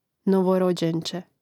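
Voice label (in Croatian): "novorođence", Hrvatski; mreznik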